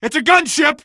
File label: male; hl2; coast; barn; gunship; Source engine